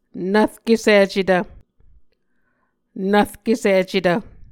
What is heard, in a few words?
"nvrkesicetv", Muscogee